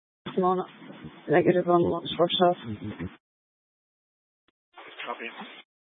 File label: Mesa County Sheriff Dispatch